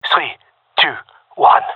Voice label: countdown, gendermerie male; vo